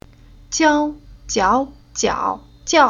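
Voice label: "Jiao", Chinese syllable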